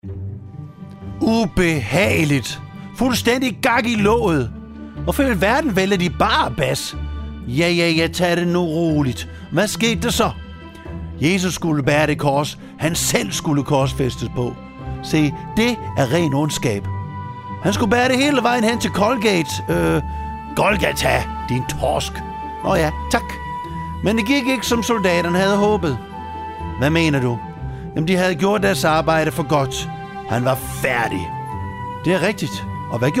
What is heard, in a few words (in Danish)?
Simon fra Kyrene drama med speak